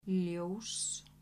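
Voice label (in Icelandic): "ljós", Icelandic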